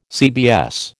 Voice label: "CBS", American